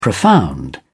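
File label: "profound", British English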